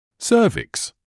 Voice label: "cervix", medical english uk